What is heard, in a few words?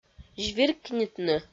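"звиркнитны", Komi, female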